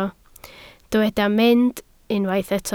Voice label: "mynd", Welsh